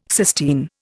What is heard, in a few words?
"cysteine", Indian